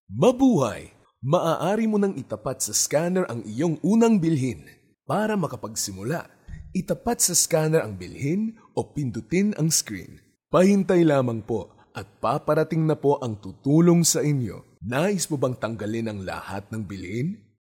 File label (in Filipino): tagalog male